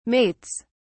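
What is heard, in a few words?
"mates", British English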